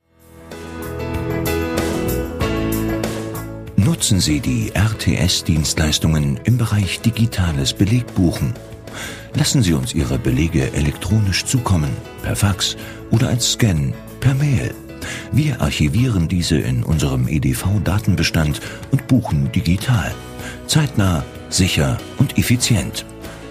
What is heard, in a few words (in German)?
Werbespot; RTS Steuerberatungsgesellschaft